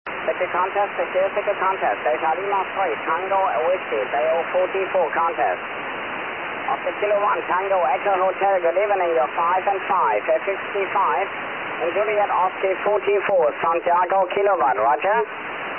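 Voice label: SSB qso, 144 MHz, amateur radio; JO44SK 583; NAC qso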